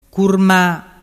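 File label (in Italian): "curma", Vernazzese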